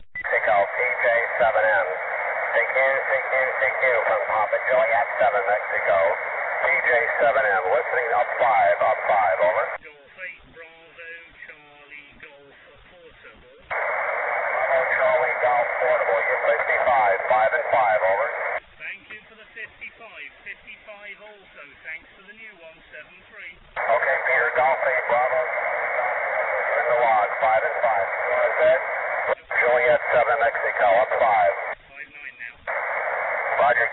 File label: ssb qso